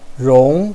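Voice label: "rong", Mandarin Chinese, second tone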